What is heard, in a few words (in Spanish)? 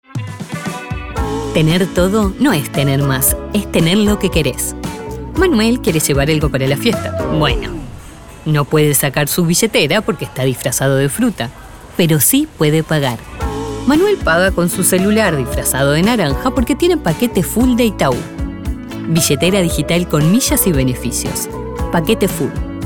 spot comercial sector bancario